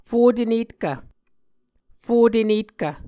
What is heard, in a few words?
"fotenetkv", muscogee